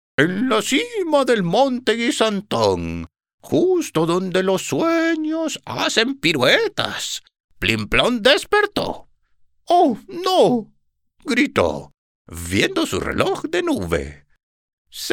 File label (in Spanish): Audiolibro; Caricatura